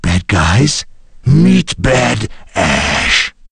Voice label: battlecry; ash

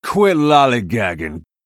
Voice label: jeers; mccree

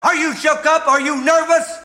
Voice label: nervous

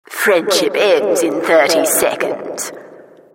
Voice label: announcer mission begins

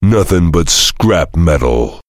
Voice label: heavy taunts; tf; duke

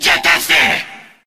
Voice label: crewman battlecry; tf; corpus

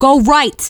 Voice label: scout head right, fem scout

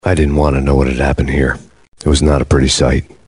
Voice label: jeers; tf; max payne

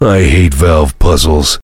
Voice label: heavy negative vocalization; tf; duke

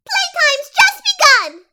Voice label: battlecry; Suika

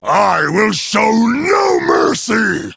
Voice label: battlecry; garrosh

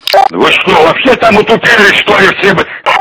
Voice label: hurt; tf; polkovnik

